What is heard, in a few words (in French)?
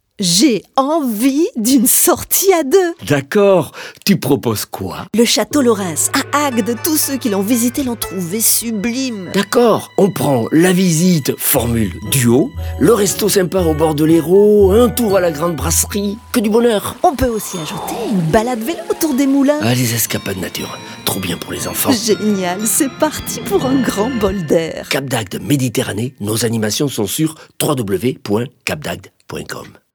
spot, herault; cap dagde villa laurens